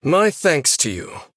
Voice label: Male Human Thanks